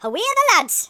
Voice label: amazing, Geordie; speech